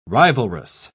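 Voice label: "rivalrous", British English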